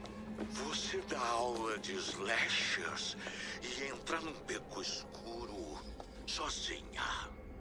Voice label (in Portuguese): voce da aula de slasher panico; ghostface